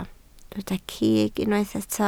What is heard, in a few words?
"cig", Welsh